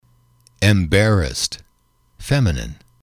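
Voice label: "embarrassed", English, male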